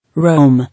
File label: "Roam", English